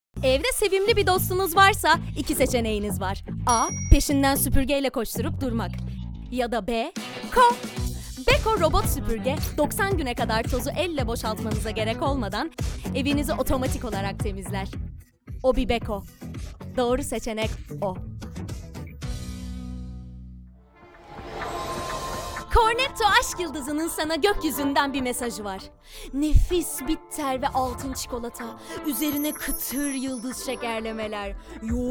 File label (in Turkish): turkce kadin